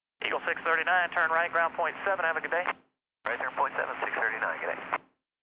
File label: chatter; rigs of rods